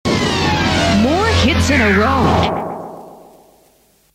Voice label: 80s More Hits In A Row, jingle

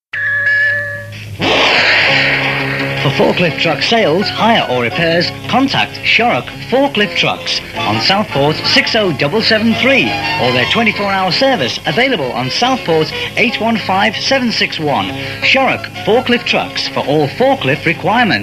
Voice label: adverts

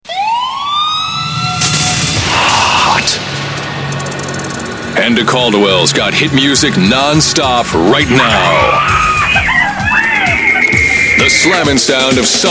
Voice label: Hit music, nonstop, Jingles; Sunshine 101

